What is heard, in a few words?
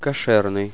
"kosher", Russian